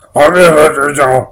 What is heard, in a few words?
Short Babble Angry